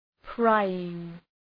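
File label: "prying", English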